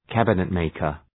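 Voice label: "cabinet maker", English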